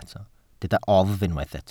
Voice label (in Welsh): "oddf" Welsh